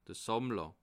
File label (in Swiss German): "sammler", alsace